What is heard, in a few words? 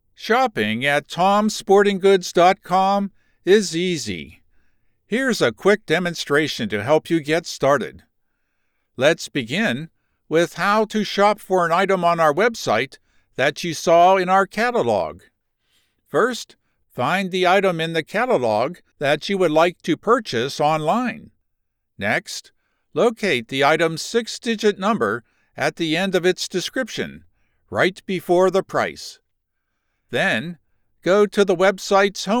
How to Do friendly explainer; e-Learning Voiceovers